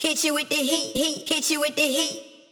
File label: Processed Phrase, Heat, 95 BPM; Vocal Phrases; Cymatics; Euphoria Vocal Sample Pack